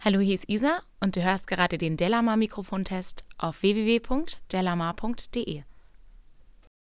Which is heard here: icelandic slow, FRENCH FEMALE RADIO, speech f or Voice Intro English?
speech f